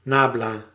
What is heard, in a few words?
"nabla", Proto-Germanic